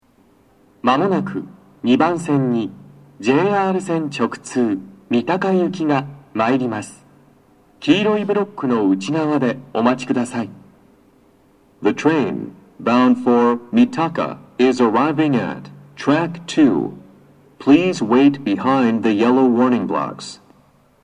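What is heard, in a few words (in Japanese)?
接近放送, 男声, 両面箱型での収録です。スピーカーが音割れしていました。; 放送は「三鷹行き」です。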